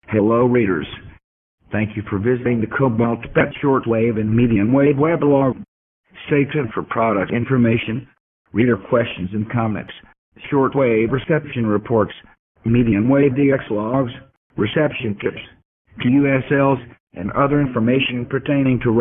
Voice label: audio greeting